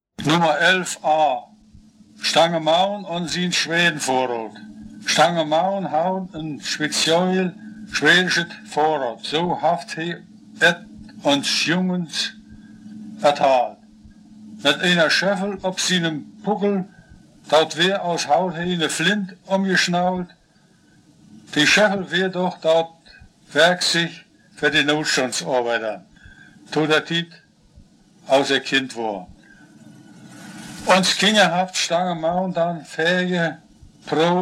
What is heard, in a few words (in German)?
Stutthoefer Platt; Stutthof